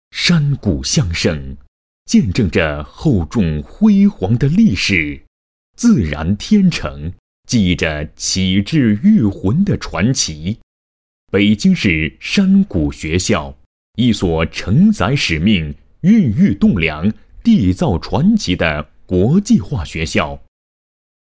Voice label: 男声 厚重 专题

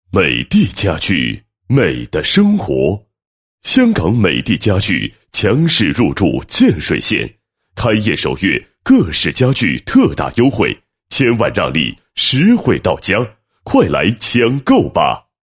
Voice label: 男 宣传广告 家具宣传广告; 美的家具宣传广告

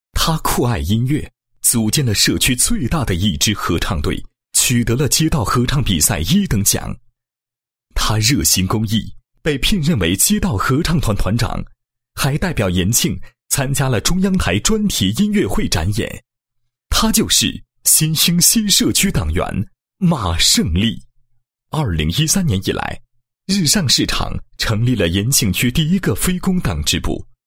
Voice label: 男-人物介绍; 香水园街道人物介绍

